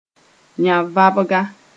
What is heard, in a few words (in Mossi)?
"yão-vabga", moore